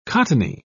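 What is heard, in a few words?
"cottony", British English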